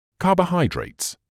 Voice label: "carbohydrates", medical english uk; kah-boh-HIGH-drayts; ˌkɑː.bəʊˈhaɪ.dreɪts

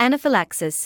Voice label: "anaphylaxis", medical english; ˌæn.ə.fɪˈlæk.sɪs; an-uh-fi-LACK-siss

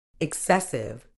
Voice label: "excessive", uk

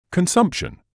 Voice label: "consumption", medical english uk

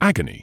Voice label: "agony", medical english uk; AG-uh-nee; ˈæɡ.ə.niː

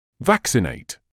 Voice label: "vaccinate", medical English

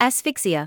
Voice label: "asphyxia", English

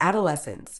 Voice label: "adolescence", Medical English; ˌæd.əˈles.əns; ad-uh-LESS-uhns

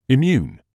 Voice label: "immune", English

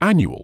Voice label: "annual", medical english uk; ˈæn.ju.əl; AN-yoo-uhl

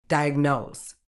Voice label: "diagnose", uk

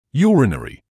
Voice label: "urinary", English

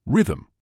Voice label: "rhythm", English

